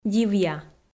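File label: "дивъя", Komi, female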